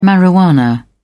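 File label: "marijuana", English, British English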